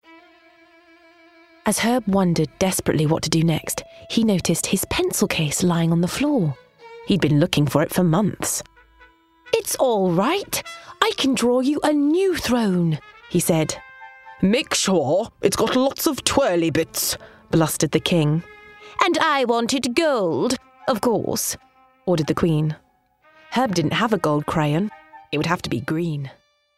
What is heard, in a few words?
Audiobook